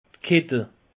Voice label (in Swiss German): "kette", alsace; peche